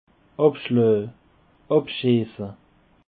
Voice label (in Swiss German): "abschlaa", Alsace